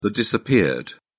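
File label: "disappeared", British English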